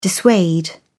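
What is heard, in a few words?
"dissuade", British English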